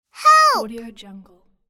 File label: child yelling "help"